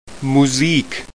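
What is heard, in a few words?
"Musik", German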